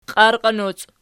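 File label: "qiarqinoci" nominative, Archi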